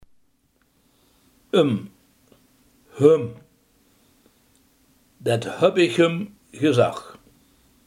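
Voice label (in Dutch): hem, Limburgse gesproken woordenboeken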